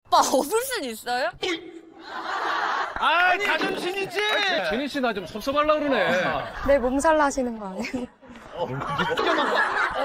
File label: vocals